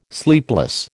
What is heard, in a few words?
"sleepless", American